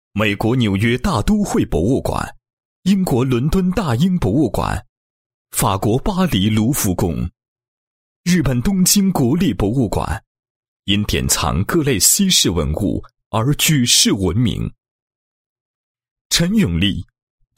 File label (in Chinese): 男, 艺术品介绍 介绍配音; 国宝双绝